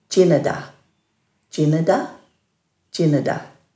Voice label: "djinadha", Dhurga